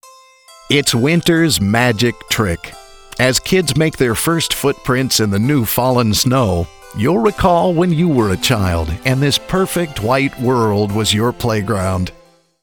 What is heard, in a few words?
COMMUNICATIONS -- Upbeat, Medium-sell; carnation